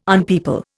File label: "unpeople", Indian